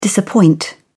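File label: "disappoint", British English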